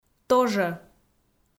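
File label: "тожӧ", Komi, female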